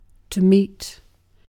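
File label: "meet", British English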